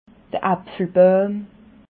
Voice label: "apfelbauim", alsace

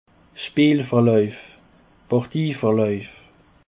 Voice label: "speelverlauef", Alsace

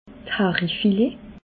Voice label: "hari filet", alsace

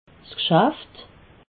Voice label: "gschaft", alsace

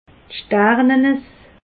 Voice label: "sternanes", alsace

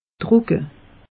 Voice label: "trucke", alsace; meteo

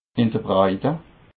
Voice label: "breite", alsace

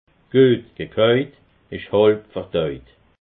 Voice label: "guet", alsace

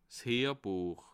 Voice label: "heerbuech", alsace

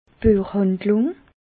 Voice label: "buechhandlung", alsace

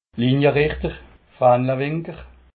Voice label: "linierichter", alsace

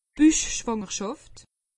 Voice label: "buchschwangerschaft", alsace; medecine

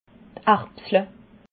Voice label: "arbsle", alsace

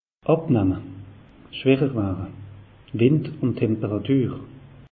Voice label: "abnemme", alsace; meteo

